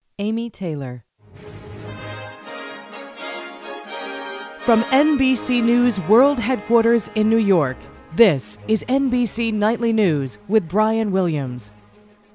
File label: Nightly News Open; NBC; Auditions